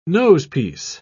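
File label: "nosepiece", British English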